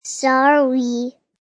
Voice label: Kid Says Sorry; Kid talking; Talking Sound Effects; Voice